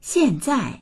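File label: "xian4zai4", Mandarin Chinese; xiànzài; 现在; 現在、今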